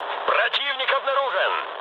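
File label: Russian enemy detected mood low, speech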